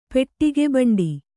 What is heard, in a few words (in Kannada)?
"ಪೆಟ್ಟಿಗೆಬಂಡಿ", Kannada